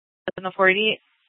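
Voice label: Police Department Dispatch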